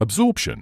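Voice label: "absorption", British English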